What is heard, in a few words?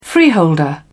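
"freeholder", British English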